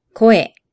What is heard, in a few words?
"koe", Japanese; Example Words